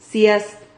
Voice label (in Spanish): "ciaz", Silabas